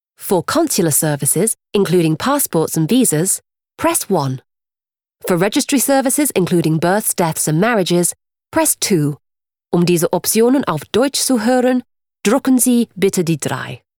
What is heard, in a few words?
VO Answerphone